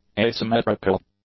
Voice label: "asymmetrical", English; adjectives